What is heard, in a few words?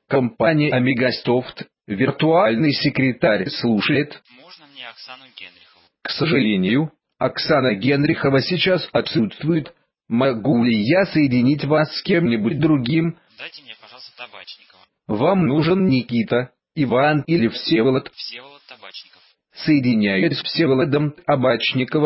switchboard example; Праймтолк